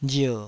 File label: "rier3", taiwanese